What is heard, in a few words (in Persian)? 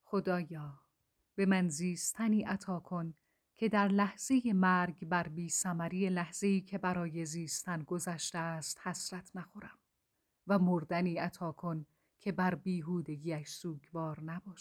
دعایی, لحن دعایی; خدايا تو چگونه زيستن را به من بياموز، چگونه مردن را خود خواهم آموخت